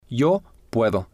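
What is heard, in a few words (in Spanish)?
yo puedo, spanish, male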